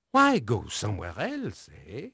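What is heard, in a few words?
French male, voice; Pirates Of The Caribbean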